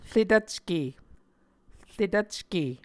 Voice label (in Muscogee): "retvcke", muscogee